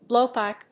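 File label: "blowpipe", English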